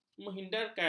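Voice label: "menghindarkan", Malay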